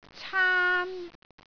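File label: "caan1", Cantonese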